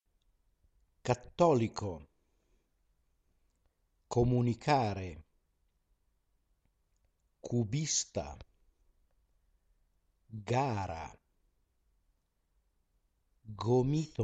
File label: pronunciation practice